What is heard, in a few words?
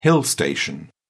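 "hill station", British English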